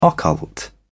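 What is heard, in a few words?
"occult", British English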